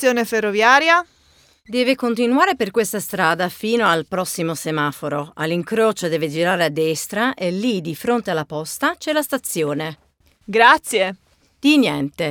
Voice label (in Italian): Dialog Scusi Emma e Anders